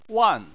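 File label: "wan", Chinese, fourth tone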